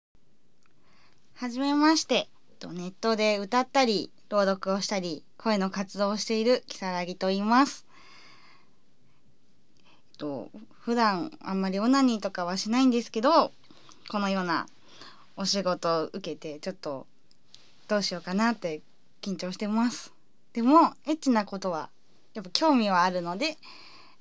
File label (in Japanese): 自己紹介, ASMR